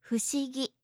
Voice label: "fushigi", japanische maedchennamen

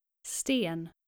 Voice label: "sten", nordische jungennamen

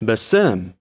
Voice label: "bassam", arabische jungennamen

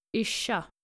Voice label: "yrsa", skandinavische maedchennamen

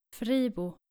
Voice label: "fribo", nordische jungennamen

